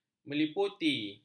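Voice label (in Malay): "meliputi", Malay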